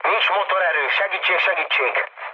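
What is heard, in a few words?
Hungarian damaged engine mood med, speech; War Thunder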